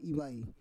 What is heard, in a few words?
"ivai", Roon